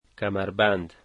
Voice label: "belt", Dari